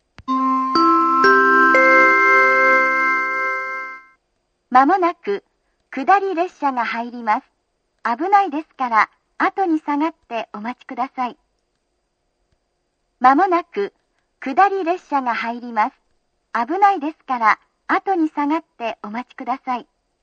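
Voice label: platform 3 approaching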